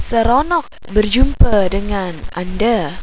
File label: nice to meet you, Malaysian; Seronok berjumpa dengan anda